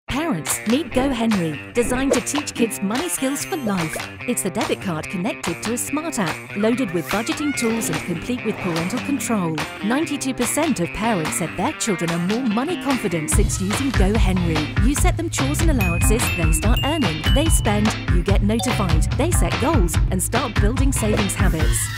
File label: TV Commercial